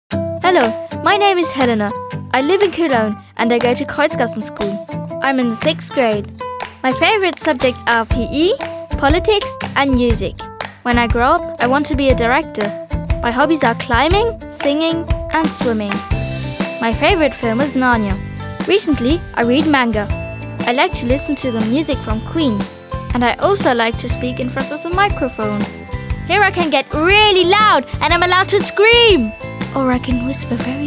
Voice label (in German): Selbstvorstellung EN